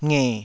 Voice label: "nge3", taiwanese syllables